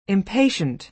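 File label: "impatient", American English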